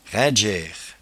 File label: "raindgeaire", patois jura